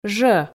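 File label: "жӧ", Komi, female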